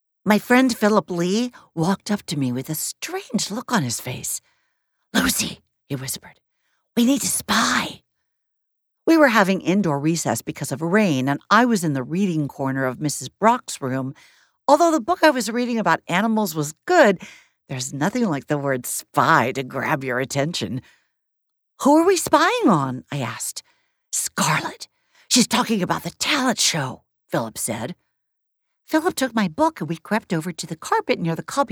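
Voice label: Young Adult Kids, Audiobook Narration, 4 characters; When the Heart Waits / Young Adult – (4 characters)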